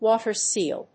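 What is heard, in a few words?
"WATER-SEAL", English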